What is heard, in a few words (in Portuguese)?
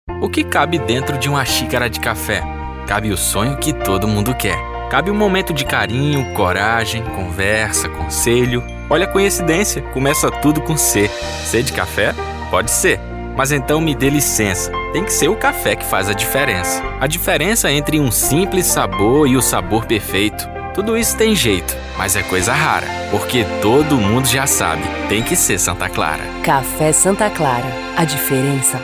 Sotaque Nordeste; Café Santa Clara